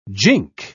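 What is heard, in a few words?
"jink", British English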